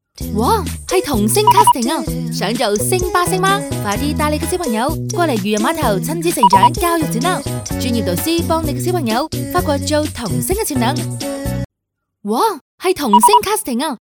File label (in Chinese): 女 粤语 广告促销; 澳门嘉年华